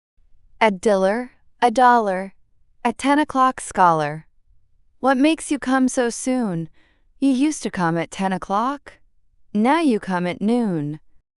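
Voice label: female US; A Diller A Dollar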